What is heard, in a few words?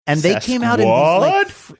funny; sasquad